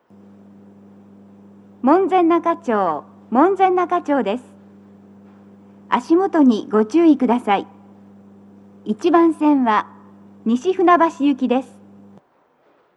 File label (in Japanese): 到着放送, 女声, BOSE天井型での収録です。; 1番線 西船橋・東葉勝田台・津田沼方面; 足元注意喚起放送が付帯されており; 放送は「各駅停車 西船橋行」です。